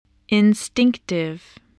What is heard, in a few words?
"instinctive", voice spelling